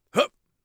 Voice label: hut, Warrior Voice; Warrior Voice Pack